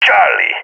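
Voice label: code charlie, voice, agents, balkan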